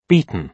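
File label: "beaten", British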